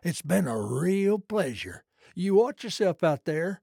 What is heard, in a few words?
trader male like sale accepted